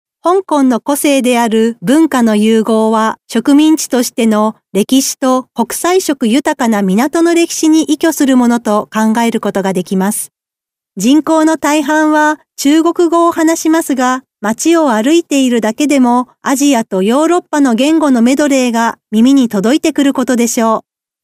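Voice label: Japanese female corporate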